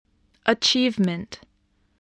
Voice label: "achievement", English voice

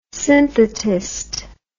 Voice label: "synthetist", English word

